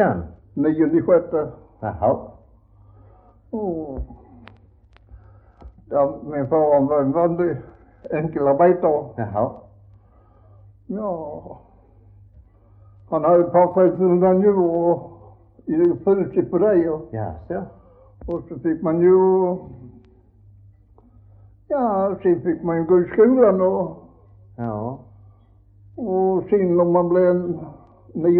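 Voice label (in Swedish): Västra Ingelstad Skåne, dialekter; Folkminnen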